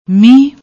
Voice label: "meeh", Punjabi

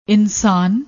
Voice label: "ensan", Punjabi

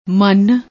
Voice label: "mann", Punjabi

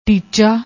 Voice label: "ticha", Punjabi

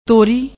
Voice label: "torri", Punjabi; ਤੋਰੀ

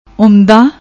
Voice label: "Umda", Punjabi; ਉਮਦਾ

